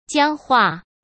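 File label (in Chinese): "僵化", Mandarin Chinese; hsk; chinese-flashcards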